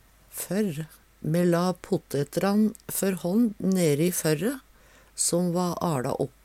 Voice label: "førr", Numedalsmål; Me la pottetran før hånd neri førre som va aLa opp.

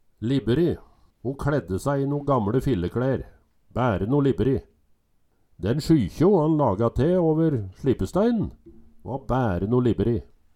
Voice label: libberi, Numedalsmål

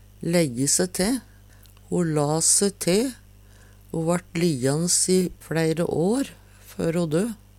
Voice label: lejje se te, Numedalsmål

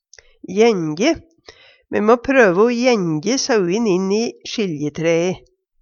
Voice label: jenje, Numedalsmål

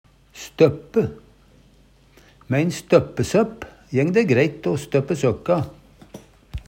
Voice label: støppe, Numedalsmål

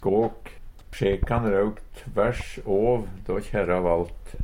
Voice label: "skak", Numedalsmål; Substantiv hokjønn; Sjekan rauk tvers åv då kjerra valt.

